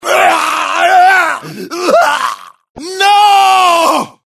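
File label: bow, Spanish; God of War Collection Volume 2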